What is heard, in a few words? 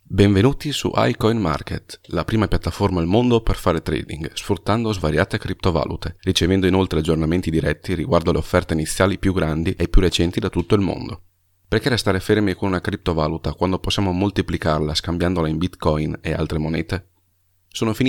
ITALIAN